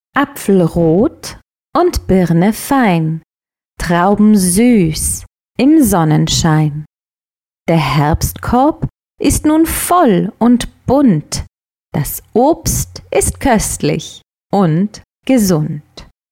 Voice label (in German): hoergedicht; sprachwerkstatt; mini spatzenpost; Schuelerzeitschriften